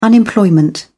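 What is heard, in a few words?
"unemployment", British English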